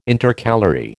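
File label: "Intercalary", American English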